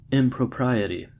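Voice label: "impropriety", US English; pronunciations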